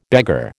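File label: "beggar", American English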